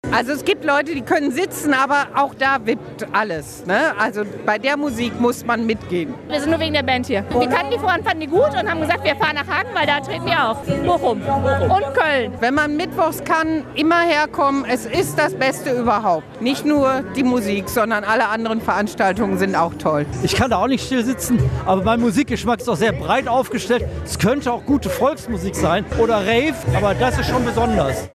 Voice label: news; Der Volkspark tanzt; Radio Hagen; Muschel